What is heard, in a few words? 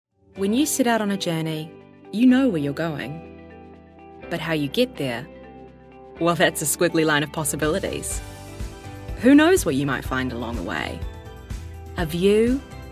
commercial